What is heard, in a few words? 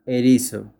spanish; Hedgehog